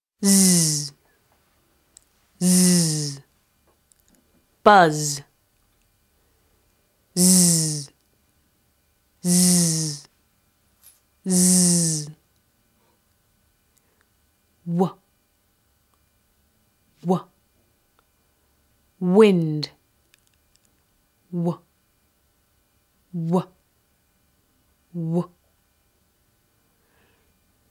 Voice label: Phonics Spoken Guide Z W N